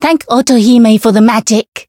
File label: Voice variable chant; nagi